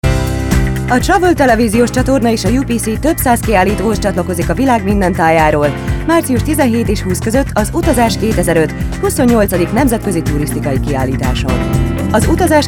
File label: Hungarian